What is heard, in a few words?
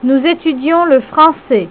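"le francais", French; HyperGlot; Sample Sounds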